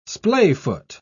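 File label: "splayfoot", English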